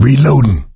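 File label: reloading quiet, male; Left 4 Dead 2; coach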